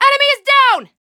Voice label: american Positive; azurlane hoi4; azurlane tj